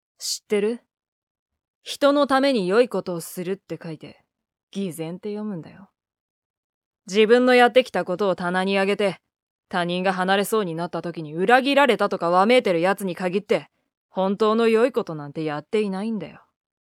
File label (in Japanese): イジワル系男の子